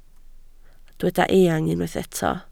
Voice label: "eang", Welsh